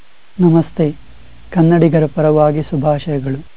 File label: kannada; Golden Record